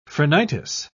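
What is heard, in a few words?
"phrenitis", British English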